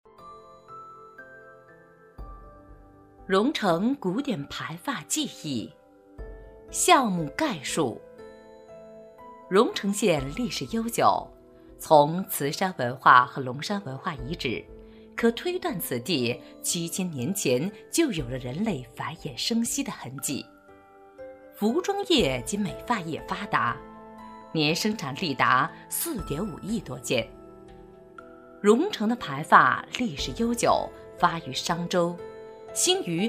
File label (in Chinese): 女; 容城盘发; 非物质文化遗产